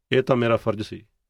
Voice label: duty phrase, punjabi; English: duty